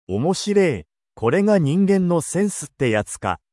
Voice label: anime, Japanese Anime and Internet Slang Expressions of ‘Haha! That’s Funny!